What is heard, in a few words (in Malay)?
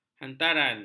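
"hantaran", Malay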